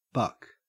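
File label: "buck", Australian English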